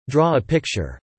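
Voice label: "draw a picture" spoken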